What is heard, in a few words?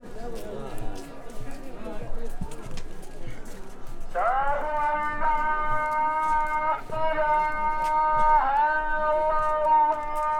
muezzin essouaira